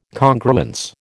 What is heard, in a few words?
"Congruence", American